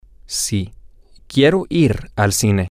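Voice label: yesIWantToGoToTheMovies, Spanish, male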